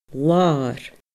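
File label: "lar", Scottish Gaelic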